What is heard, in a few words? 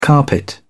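"carpet", English, British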